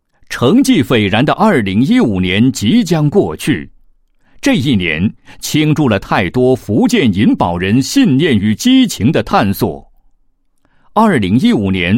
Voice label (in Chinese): 男; 企业; 银保渠道2016年开门红启动会视频文字